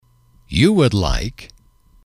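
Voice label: "you would like", English, male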